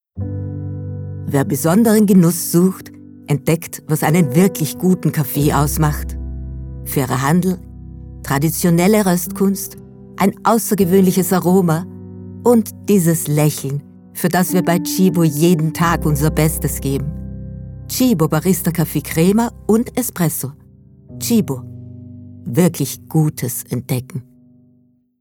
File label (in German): WERBUNG Musik; TSCHIBO; Sprecherdatenbank